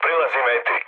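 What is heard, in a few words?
Serbian near waypoint mood low; speech; War Thunder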